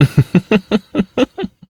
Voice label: Ambient Male, Dialog; Duviri